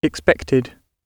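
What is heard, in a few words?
"expected", British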